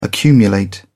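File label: "accumulate", British English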